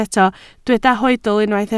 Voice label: "hoedl", Welsh

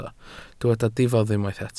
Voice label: "diffodd", Welsh

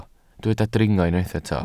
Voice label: "dringo", Welsh

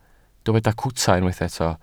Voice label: "cwta", Welsh

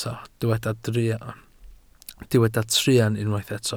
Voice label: "truan", Welsh

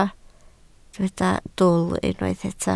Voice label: "dwl", Welsh

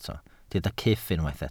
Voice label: "cyff", Welsh

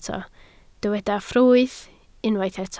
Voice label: "ffrwyth", Welsh

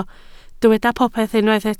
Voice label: "popeth", Welsh

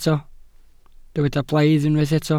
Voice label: "blaidd", Welsh

